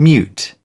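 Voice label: "mute", British English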